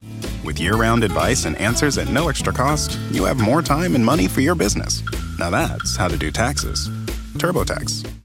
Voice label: Professional, Friendly, Real; Professional, Friendly, Natural; Turbo Tax